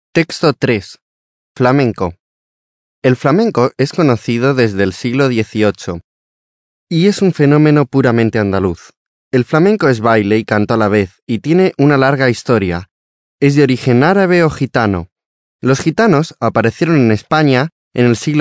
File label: spanish male